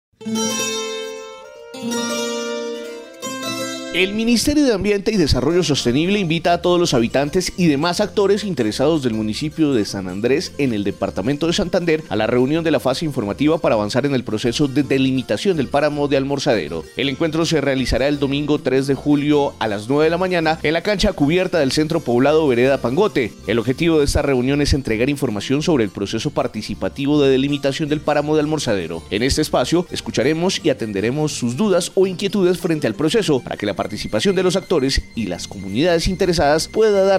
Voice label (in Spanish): Mensaje Convocatoria; San Andres Almorzadero